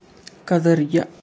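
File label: "ಕದರ್ಯ", Kannada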